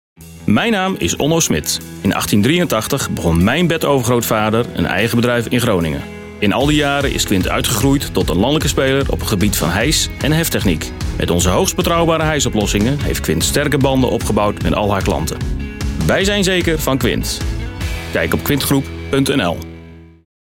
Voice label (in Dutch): commercial, Nieuwsradio; KWINT; BNR